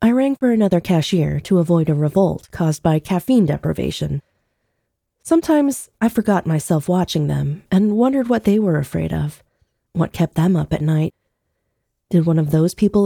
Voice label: audiobook; Audiobook Narrator

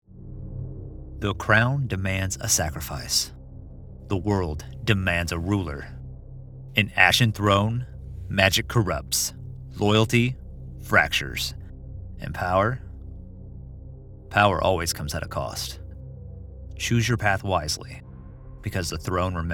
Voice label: Professional Male Voice Actor, American male; Ashen Throne

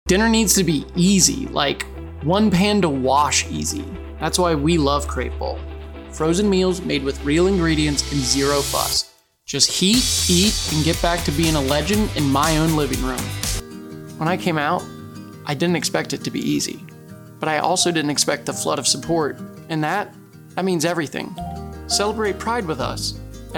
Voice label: VO Commercial

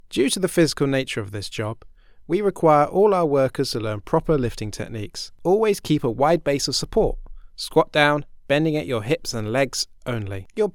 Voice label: elearning; freelance voice over artist

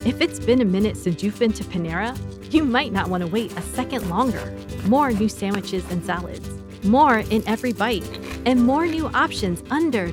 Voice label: Female Voice Over

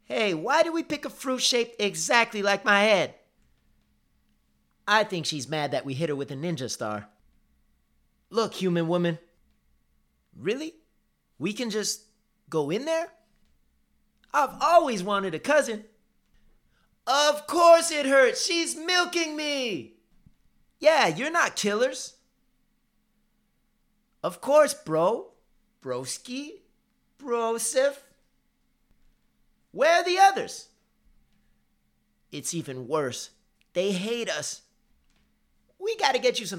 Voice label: male voice over

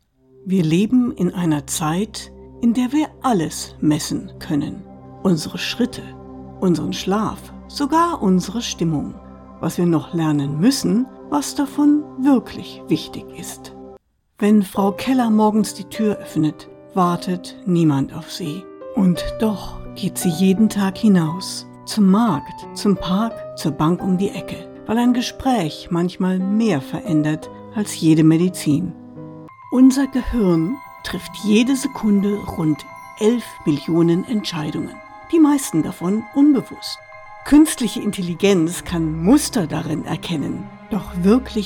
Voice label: Narration Erzaehlung German

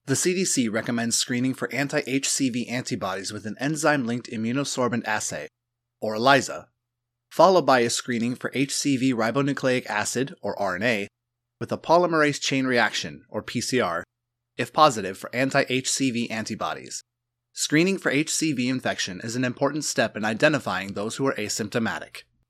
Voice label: E Learning; HCV

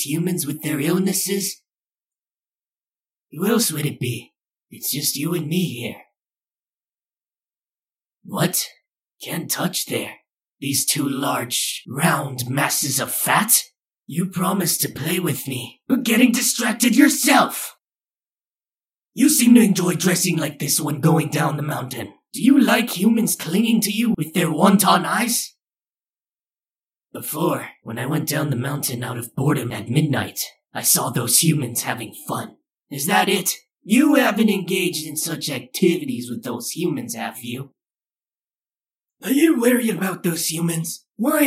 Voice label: Slime Octopus Voice Over; Callisto

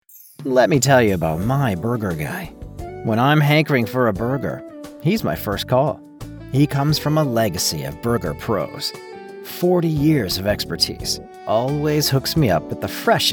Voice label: Burger Script w music

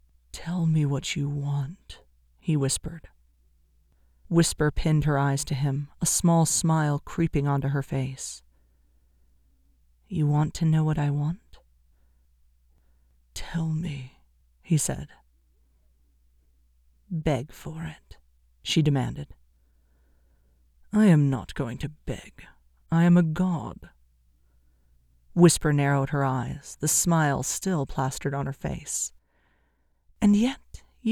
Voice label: Flirty Romantic Moment

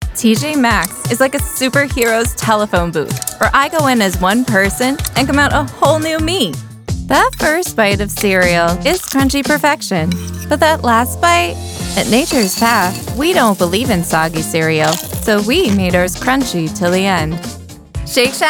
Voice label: Female Voice Over Talent

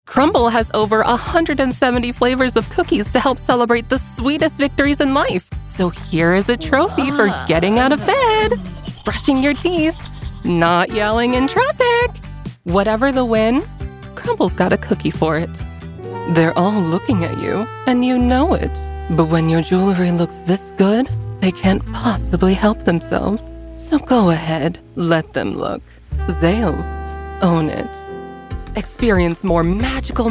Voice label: Commercial

